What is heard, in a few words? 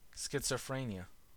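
"schizophrenia", US English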